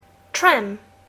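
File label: "tram", English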